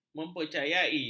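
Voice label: "mempercayai", Malay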